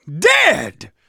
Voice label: kill confirmation, manager, survivor, voice; Left 4 Dead 2

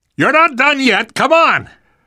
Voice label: revive friend loud, namvet, survivor voice

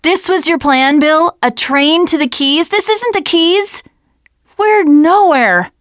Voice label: teen girl

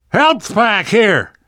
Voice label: spot first aid, namvet, survivor voice; Left 4 Dead 2; left4dead2 dlc2

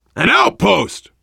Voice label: world farmhouse, voice, biker; Left 4 Dead 2; left4dead2 dlc3; survivor

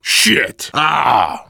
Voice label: reaction boomer vomit; Left 4 Dead 2; left4dead2 dlc3; survivor

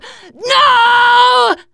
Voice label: grabbed by smoker, teen girl; player; survivor; voice

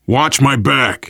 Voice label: cover me, voice, biker; Left 4 Dead 2; survivor

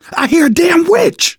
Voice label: heard witch, manager, survivor, voice; Left 4 Dead 2; left4dead2 dlc3

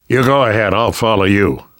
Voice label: lead on, survivor voice namvet; Left 4 Dead 2; left4dead2 dlc3

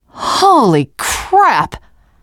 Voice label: violence awe, teen girl; player; survivor; voice

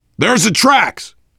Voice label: world farmhouse, voice, biker

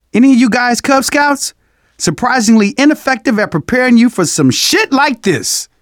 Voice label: zombie generic long, survivor voice; manager; Left 4 Dead 2; left4dead2 dlc2

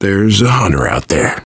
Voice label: heard hunter, voice, biker; Left 4 Dead 2; left4dead2 dlc3; survivor

